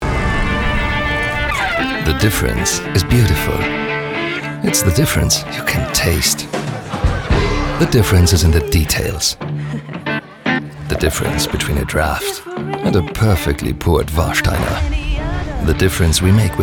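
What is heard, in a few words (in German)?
American English Werbung Cool; Fremdtake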